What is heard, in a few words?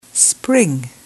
"spring", English